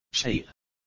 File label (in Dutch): "ﺷَﺎﻱ" Hijazi-Arabisch; thee-in-andere-talen